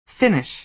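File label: "finish", American English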